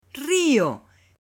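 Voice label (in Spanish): "RIO", Spanish, female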